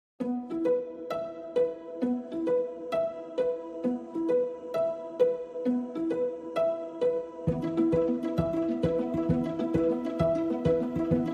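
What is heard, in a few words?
Austrian German Documentary; GoLocalise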